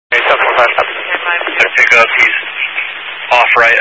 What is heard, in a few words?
Highway Patrol, scanner; DPS